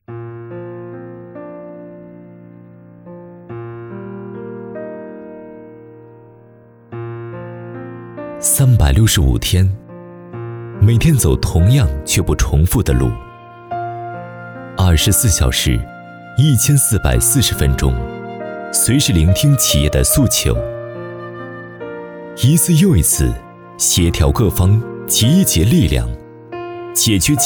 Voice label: 男 旁白; 广州市黄埔区工商业联合会旁白

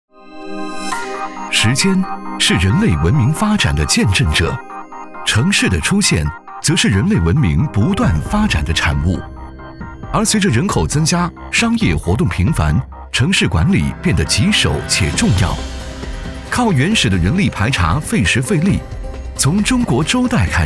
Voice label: 男 专题 大气 讲述; 商汤科技

